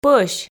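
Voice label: "пӧсь", Komi, female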